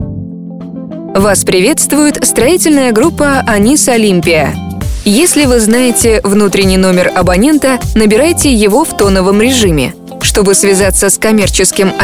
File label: IVR voice